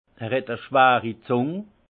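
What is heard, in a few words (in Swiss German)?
"zung", alsace Haut-Rhin; vin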